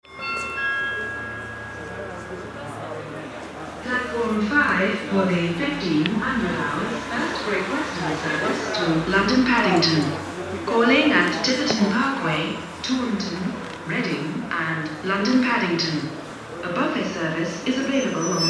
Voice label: train station london announcement